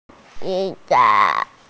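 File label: "xi3 ai4", Mandarin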